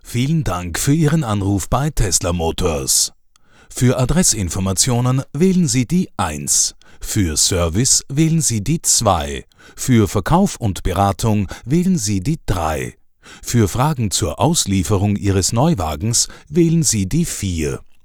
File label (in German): DE IVR; IVR/Phone systems; Male; German